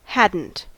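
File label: "hadn't", English, US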